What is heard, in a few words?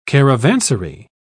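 "caravansary", English, British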